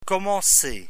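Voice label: "commencer", French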